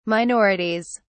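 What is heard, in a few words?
"minorities", British English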